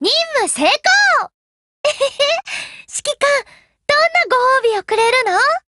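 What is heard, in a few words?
WIN Japanese; GF Alarm; UMP9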